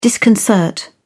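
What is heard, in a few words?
"disconcert", English, British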